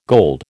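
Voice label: "gold", American English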